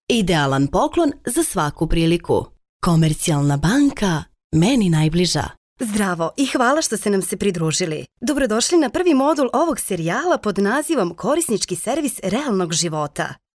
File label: female serbian voice over sales